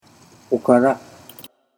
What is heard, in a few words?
"okara", Japanese